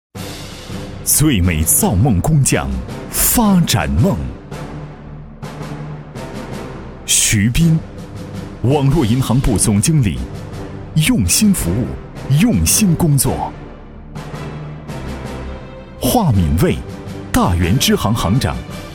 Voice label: 男, 颁奖; 最美工匠

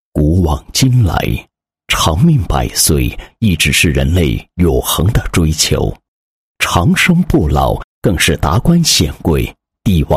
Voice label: 男 旅游宣传; 天赐乐土 人间巴马 巴马旅游宣传

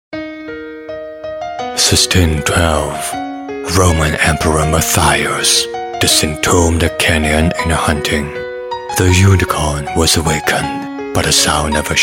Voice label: 英 男, 角色, 神秘舒缓, 广告游戏

